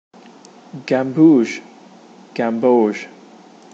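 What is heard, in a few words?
"gamboge", British English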